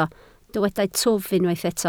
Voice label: "twf", Welsh

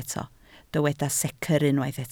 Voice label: "secr", Welsh

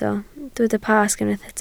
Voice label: "Pasg", Welsh

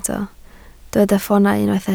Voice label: "ffoonau", Welsh

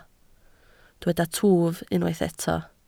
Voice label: "twf", Welsh

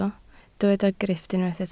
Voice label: "grifft", Welsh

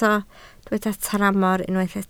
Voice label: "tramor", Welsh

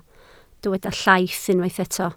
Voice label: "llaith", Welsh

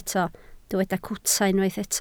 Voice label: "cwta", Welsh

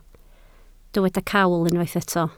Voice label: "cawl", Welsh

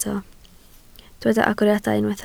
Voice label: "agoriadau", Welsh

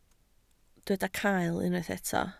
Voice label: "cael", Welsh

